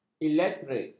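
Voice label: "elektrik", Malay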